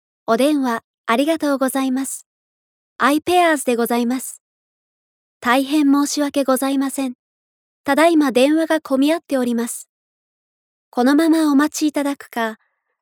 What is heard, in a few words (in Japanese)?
jp; 日本語; ナレーター; ナレーターランクⅢ